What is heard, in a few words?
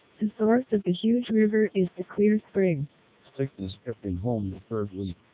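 MELPe 1200 bps, American, Input speech (SNR=10dB), NPP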